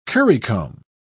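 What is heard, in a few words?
"currycomb", British English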